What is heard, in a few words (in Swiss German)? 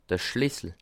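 "schlissel", Bas Rhin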